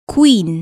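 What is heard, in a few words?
"queen" English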